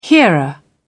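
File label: "hearer", British English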